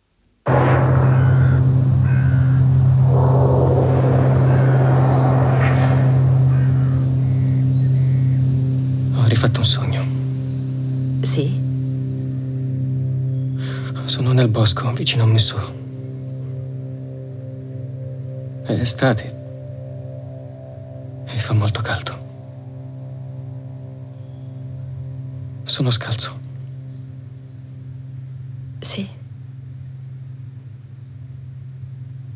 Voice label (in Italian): doppiaggio; la tregua